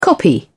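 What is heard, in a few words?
"copy", British English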